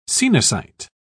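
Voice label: "coenocyte", English, British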